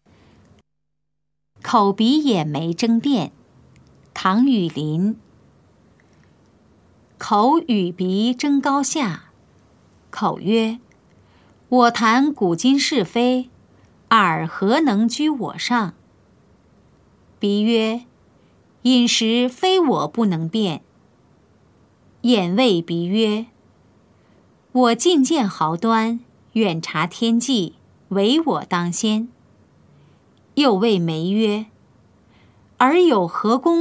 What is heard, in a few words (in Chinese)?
putonghua; 口鼻眼眉爭辯; 普通話